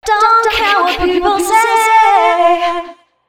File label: female 130, Dance Ultra Voice, short hooks